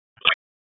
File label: Police Northwest District Tac 1